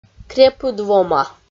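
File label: "крепыд вома", Komi, female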